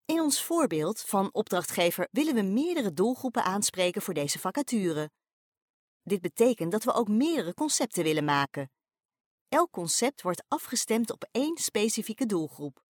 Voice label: female dutch voice over narrative